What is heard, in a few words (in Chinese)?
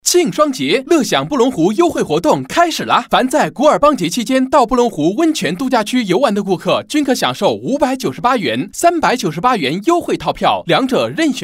男 促销 年轻活力; 温泉旅游区促销